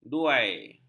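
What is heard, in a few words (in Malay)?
"duai", Malay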